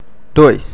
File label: "dois" Portuguese